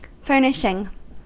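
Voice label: "furnishing", American English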